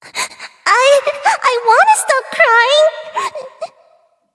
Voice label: level up, en

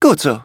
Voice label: victory, de; Cookie Run

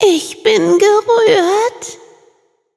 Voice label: levelup de; cookie run

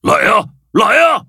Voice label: loading, zh-hant

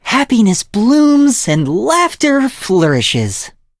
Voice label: levelup en

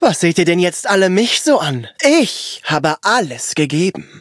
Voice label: defeat, de; cookie run

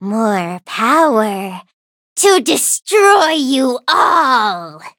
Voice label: level up, en; cookie run

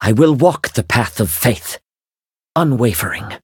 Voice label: levelup en; cookie run

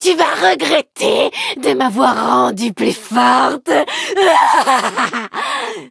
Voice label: levelup, fr; Cookie Run

